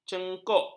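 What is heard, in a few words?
"cengkok", Malay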